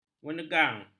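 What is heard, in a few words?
"menegang", Malay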